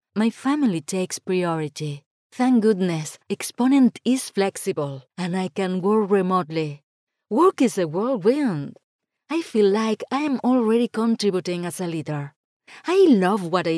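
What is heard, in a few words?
Spanish Spain female English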